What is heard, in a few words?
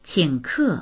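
"qing3ke4", Mandarin Chinese; 请客; qǐngkè; ごちそうする、客を招待する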